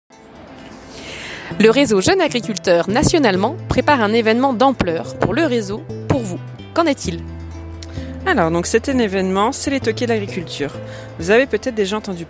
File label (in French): podcast; Toqués